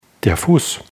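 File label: "fuss", German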